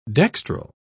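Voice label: "dextral", British English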